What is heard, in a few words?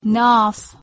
"naaf", Persian